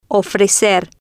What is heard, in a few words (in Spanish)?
"ofrecer", Spanish